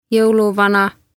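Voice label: "Jouluvana", Estonian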